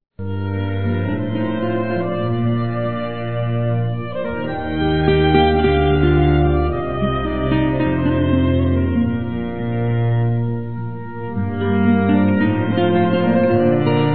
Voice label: Masculino, Dia Dos namorados; GLS; Telemensagens 2017

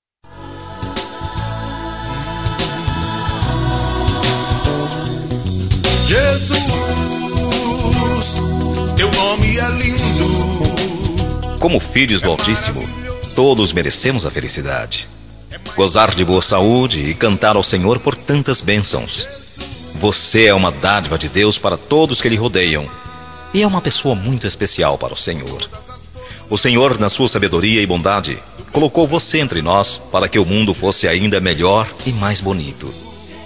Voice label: Telemensagem aniversario Evangelico (Voz Masculina); Temas Evangelicos

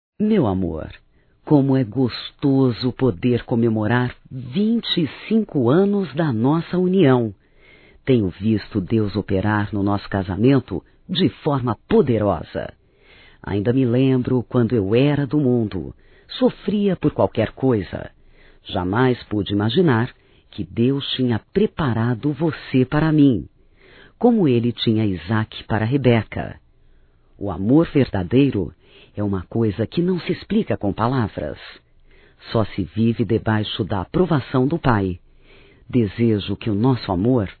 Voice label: Bodas de Prata Feminino; Bodas de casamento; Telemensagens 2017

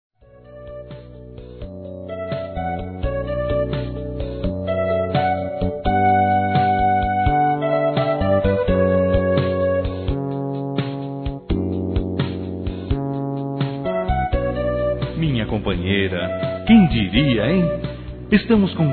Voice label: Bodas de Ouro Masculino, Telemensagens

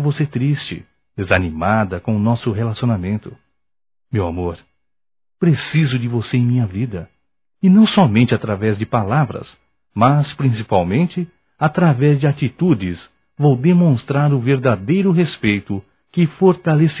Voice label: Desculpe pelo Ciúmes Masculino; Telemensagens 2017

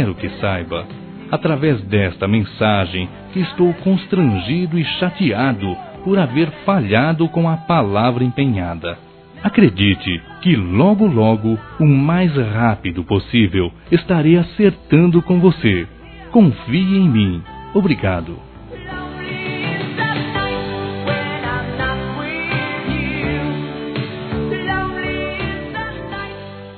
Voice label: Desculpas Pelo Atraso No Pagamento - Masc; Telemensagens 2017

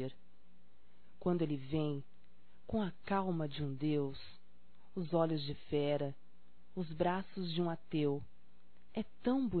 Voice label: Amor Feminino; Telemensagens 2017

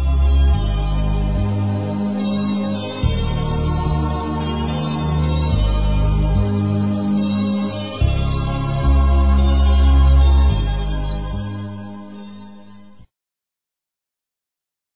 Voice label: Parabéns Pela Vitória, masc; Telemensagens; Parabenização

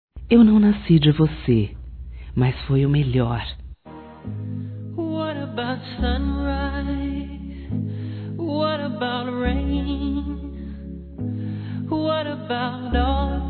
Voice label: PAI CONSIDERAÇÃO, fem; Telemensagens 2017

